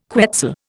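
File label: "quetzal", Indian English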